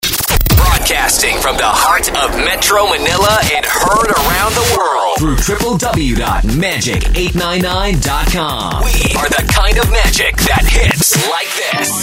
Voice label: RADIO STATION ID Imaging and Branding Voice, Top of Hour; Magic899